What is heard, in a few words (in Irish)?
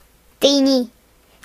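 "people", Irish; gcompris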